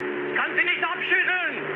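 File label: German aircraft damaged mood low, speech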